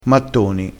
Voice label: "mattoni", crespinese, vocabolario dialettale

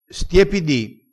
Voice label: "stiepidi", crespinese

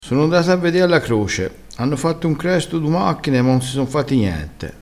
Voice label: "cresto", crespinese

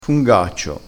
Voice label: "fungaccio", crespinese

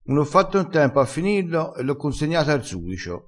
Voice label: "ar sudicio", crespinese

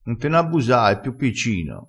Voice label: "abbusa", Crespinese

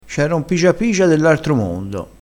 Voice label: "pigia pigia", crespinese

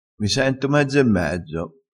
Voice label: "mezzo e mezzo", vocabolario dialettale

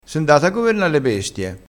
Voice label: "bestie", crespinese

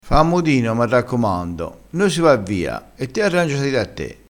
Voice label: "scastagnassela", crespinese

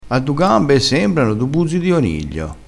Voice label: "buzzo di oniglio", vocabolario dialettale crespinese

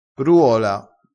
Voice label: "ruola", crespinese

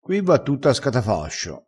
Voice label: "a scatafascio", Crespinese

